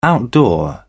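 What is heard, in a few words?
"outdoor", British English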